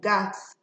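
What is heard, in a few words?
"gats", Nigerian Pidgin, female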